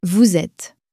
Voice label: "vous êtes", French

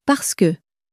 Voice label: parce que, common french words

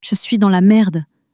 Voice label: je suis dans la merde, common french words

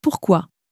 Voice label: "pourquoi", French

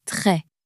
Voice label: "très", French

